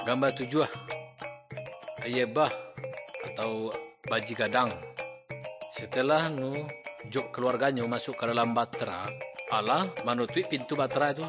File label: good news minangkabau muko muko; kaba ilo